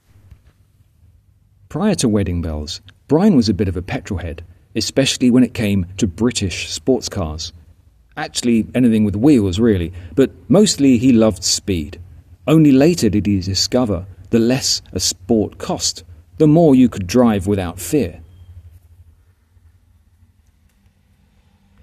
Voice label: voiceover